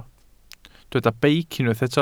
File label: "beic", Welsh